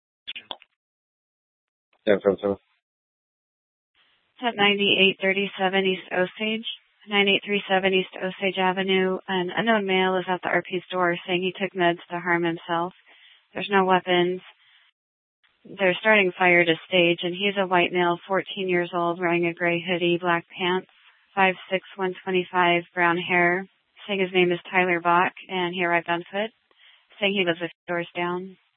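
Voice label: Police Department Dispatch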